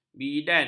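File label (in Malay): "bidan", Malay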